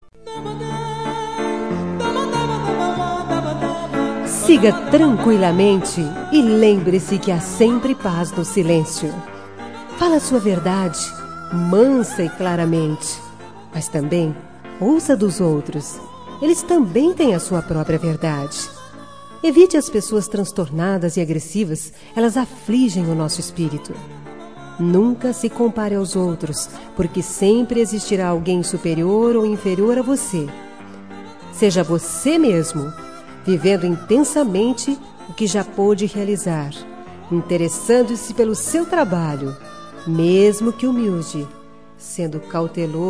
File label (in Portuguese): ORACAO UM DIA DE ESPERANCA MASC, Telemensagens Gospel